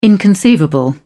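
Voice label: "inconceivable", British English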